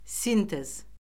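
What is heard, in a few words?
"sintez", Azerbaijani; Orfoqrafiya Lüğəti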